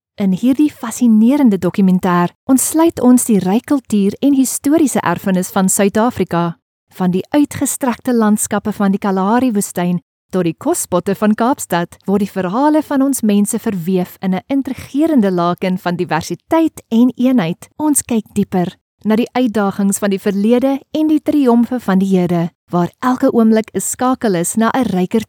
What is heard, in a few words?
afrikaans documentaries; Synchronsprecher aus Südafrika